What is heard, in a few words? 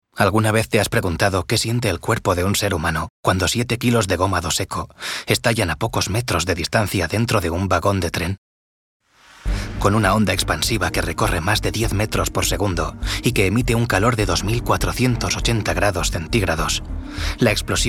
spanish narration